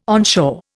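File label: "onshore", Indian English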